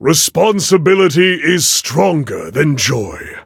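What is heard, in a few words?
level up, English; cookie run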